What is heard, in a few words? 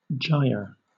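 "gyre" British English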